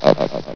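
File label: "up", male synthesized announcer voice; hlvox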